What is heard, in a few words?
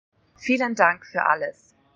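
pronunciation vielen dank fur alles, German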